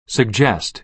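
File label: "suggest", American English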